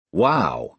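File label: "wow", American English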